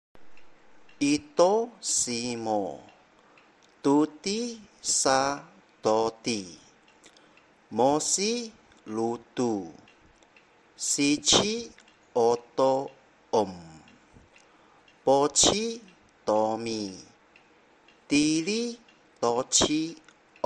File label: Mantra